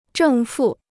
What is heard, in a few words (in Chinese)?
"正负", Chinese